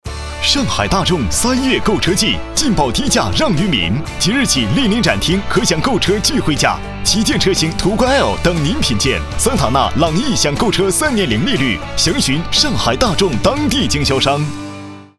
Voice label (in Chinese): 男国 广告 汽车 浑厚; 上海大众